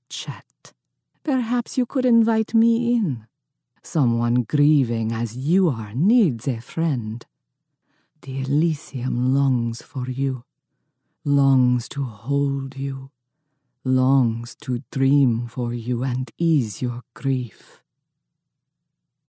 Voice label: E European Sample